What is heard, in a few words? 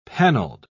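"panelled", British English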